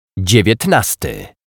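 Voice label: "dziewietnasty", Polish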